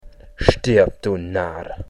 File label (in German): Narr